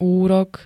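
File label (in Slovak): "úrok", Slovak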